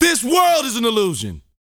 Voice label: TALK FF, Vocal Planet R&B Dance SCI-FI; 5 SCI-FI CHZ

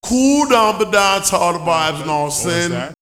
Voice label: "Dancehall", house vocals; X-Static Goldmine

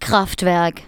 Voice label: "kraftwerk", spoken; Techno Trance Essentials

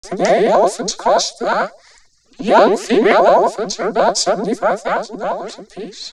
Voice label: Young Female, Spoken

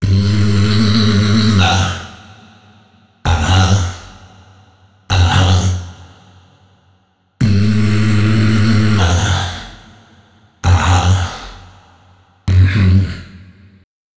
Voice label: male 130, Dance Ultra Voice; Mutekki Media

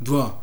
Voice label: "jugo", shouts; Best Service; Hyper Dance

